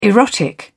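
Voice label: "erotic", British English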